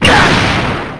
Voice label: pain intense

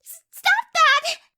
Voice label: "stop that", voice

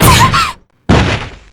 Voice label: dynamic, jp; weiss

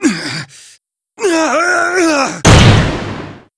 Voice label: dynamic

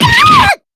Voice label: damage, jp; weiss

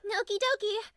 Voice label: "okie doki", voice; sachika

